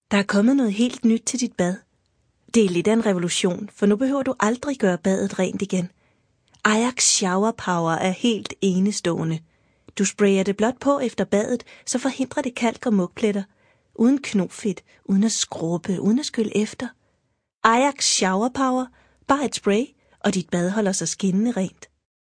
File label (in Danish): speak reklame